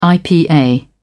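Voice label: "ipa", English, British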